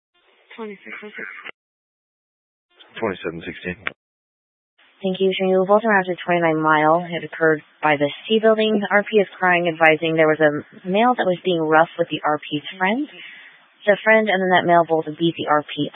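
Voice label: Sheriff Dispatch, Scanner audio recording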